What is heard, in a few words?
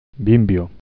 wicker, galician